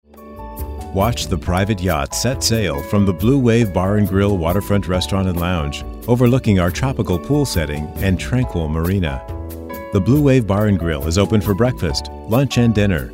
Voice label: On-hold marketing message easy going

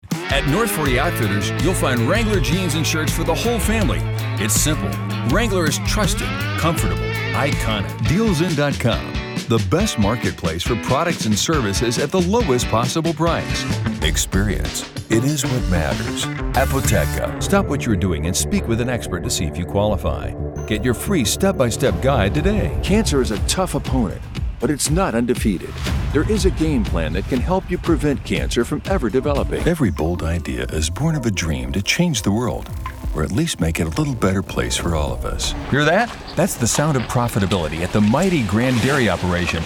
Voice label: Commercial, voice

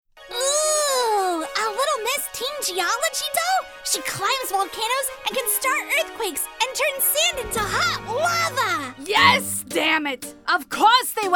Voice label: Character

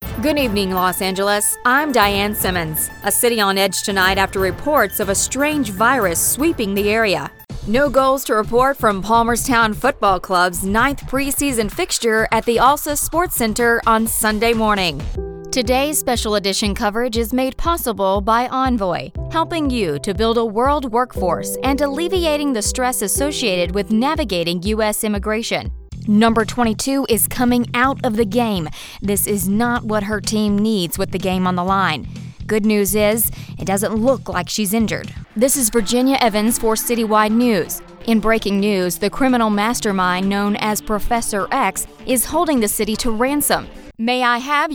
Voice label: broadcaster

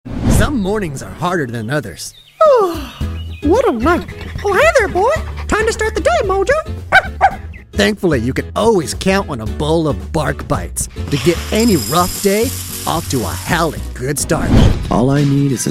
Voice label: commercial narration vo

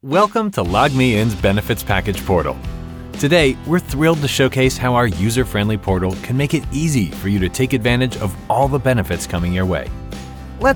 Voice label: Explainer

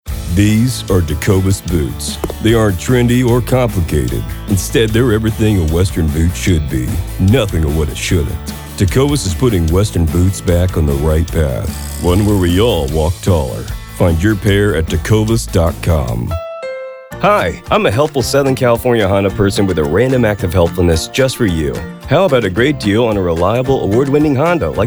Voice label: vo commercial

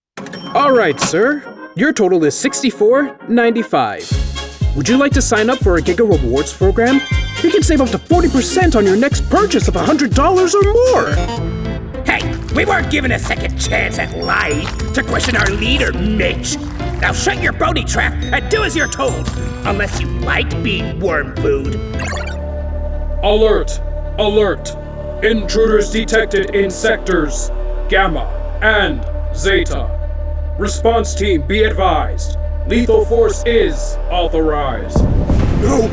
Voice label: Character

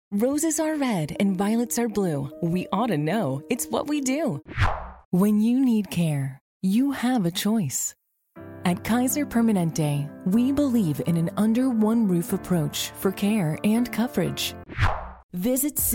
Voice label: commercial

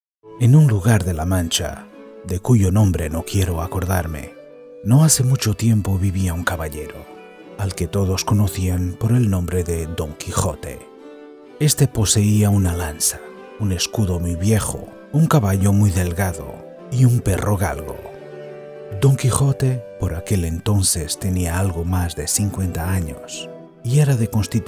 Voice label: Spanish Narration

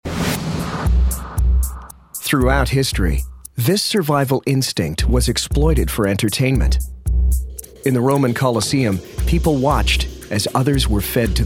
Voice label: Television Narration

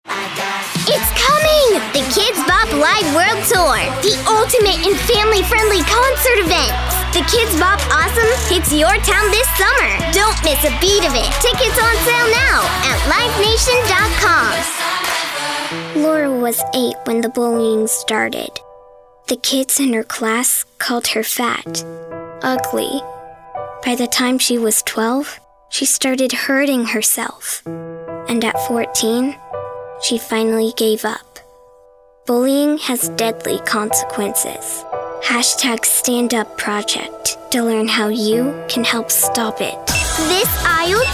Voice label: Commercial VO

